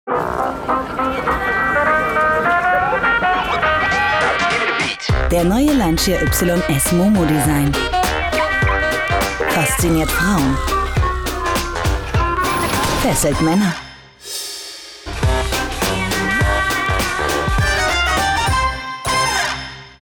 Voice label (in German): Sprecherin; WERBUNG; LANCIA: Ypsilon Momo Design